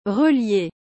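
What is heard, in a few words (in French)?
"relier", French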